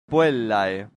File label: "puellae", latin; santillana